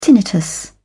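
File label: "tinnitus", British English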